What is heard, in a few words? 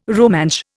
"Rumansh", Indian